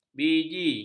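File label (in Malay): "biji", Malay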